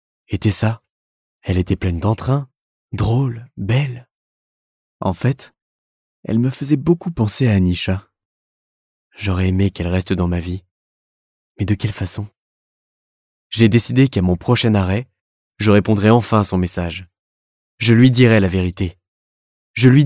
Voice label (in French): Livre audio Narration et pensées